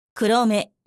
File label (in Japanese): "黒目", Japanese; SFXJapanese